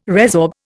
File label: "resorb", Indian